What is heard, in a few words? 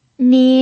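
"nie", pinyin, first tone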